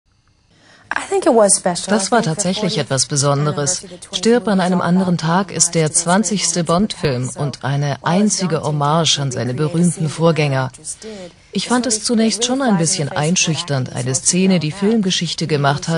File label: voice-over